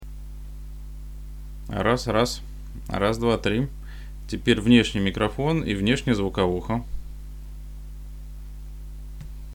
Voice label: test ext mic ext audio; микрофон — Genius; пишем с внешнего микрофона на внешнюю звуковуху